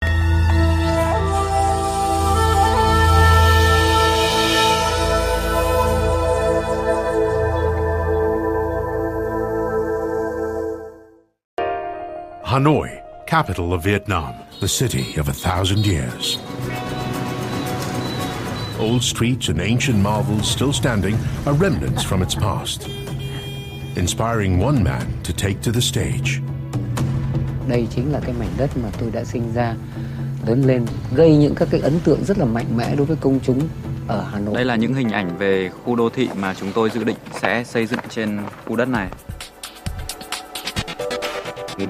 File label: Asian English Trailer; First Time Filmmakers VietNam Trailer